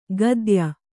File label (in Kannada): "ಗದ್ಯ", Kannada